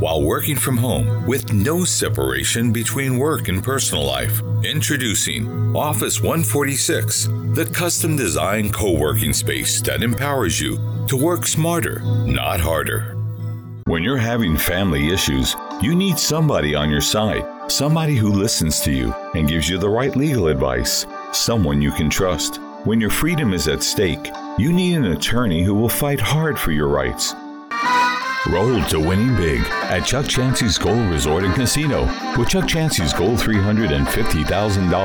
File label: Mixed Variety, Voice Over Demos